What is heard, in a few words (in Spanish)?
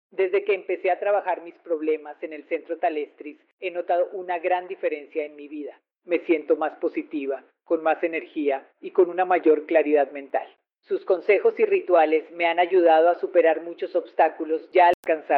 Mujer